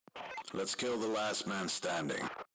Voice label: last enemy, radio